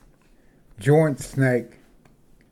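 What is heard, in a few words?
"joint snake", English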